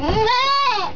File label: kids "Ma"